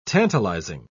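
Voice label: "tantalising", British English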